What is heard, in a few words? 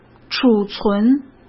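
"储存", Mandarin Chinese; chinese-flashcards; hsk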